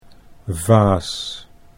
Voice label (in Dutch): "vaas", Dutch